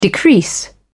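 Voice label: "decrease", British English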